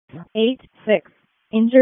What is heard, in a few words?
EMS ALERT; wake